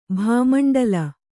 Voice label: "ಭಾಮಂಡಲ", Kannada

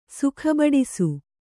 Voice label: "ಸುಖಬಡಿಸು", kannada

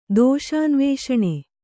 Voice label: "ದೋಷಾನ್ವೇಷಣ", Kannada

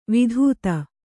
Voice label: "ವಿಧೂತ", kannada

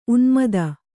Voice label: "ಉನ್ಮೋದ", Kannada

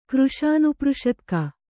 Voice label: "ಕೃಶಾನುಪೃಷತ್ಕ", Kannada

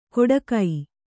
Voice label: "ಕೊಡಗೈ" Kannada

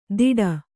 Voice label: "ದಿಡ", kannada

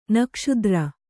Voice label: "ನಾಗಾಸ್ತ್ರ", kannada

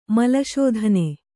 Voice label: "ಮಲಶೋಧನೆ", kannada

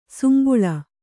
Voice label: "ಸುಂಬುಳ", Kannada; sumbuḷa; noun

